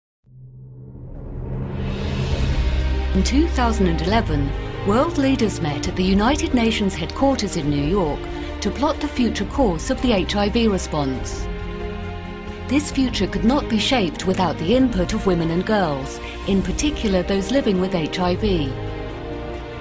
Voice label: Documentary narration